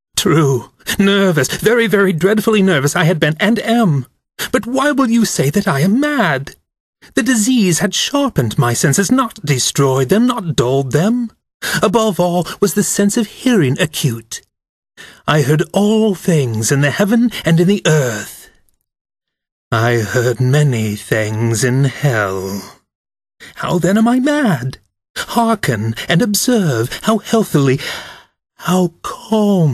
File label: voice over suspense